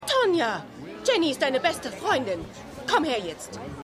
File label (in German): Mutter; Tonyas Mutter